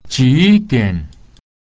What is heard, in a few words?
"ji2dian3", Chinese; what time